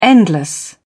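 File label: "endless", British English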